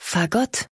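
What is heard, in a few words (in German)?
"Fagott", German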